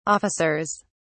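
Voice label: "officers", British English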